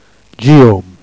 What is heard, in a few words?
"dgilliaume", Jèrriais